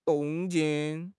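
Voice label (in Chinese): "动静", Mandarin Chinese; HSK6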